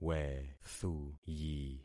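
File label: "wethuyi", concatenated syllables; Affixing experiment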